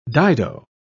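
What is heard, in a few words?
"dido", British English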